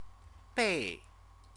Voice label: "bei", Chinese

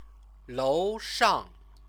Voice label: "loushang", Chinese

